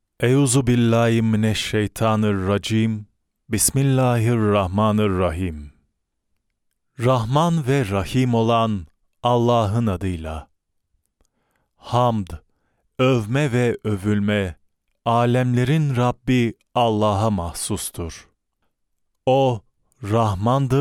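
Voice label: Turkish, voice over dubbing, male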